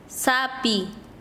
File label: "raiz", Quechua Chanka